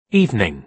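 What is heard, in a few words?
"evening", British English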